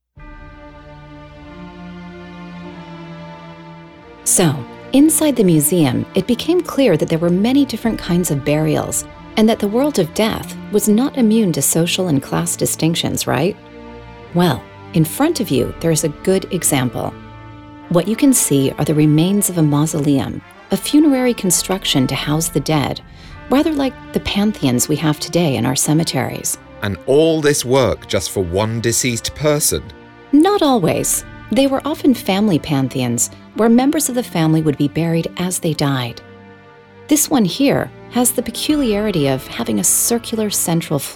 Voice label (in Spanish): audioguia; necropolis; mausoleu de planta central eng